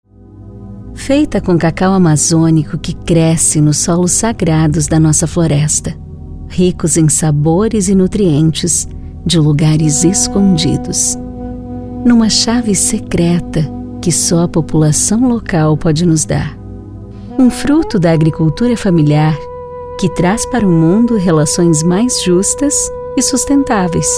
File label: portuguese brazilian corporate videos